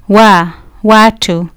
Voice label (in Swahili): "watu", Swahili